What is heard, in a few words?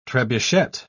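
"trebuchet", English word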